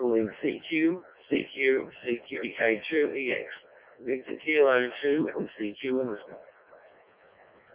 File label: decoded speech, parrot